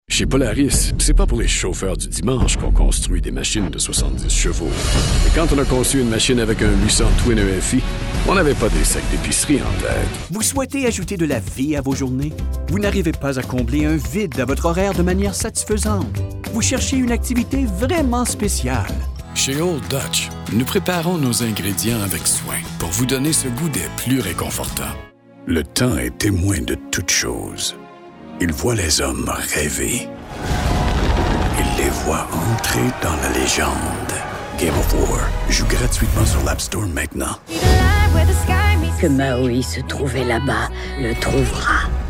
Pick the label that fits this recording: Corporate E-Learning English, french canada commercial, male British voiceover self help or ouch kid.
french canada commercial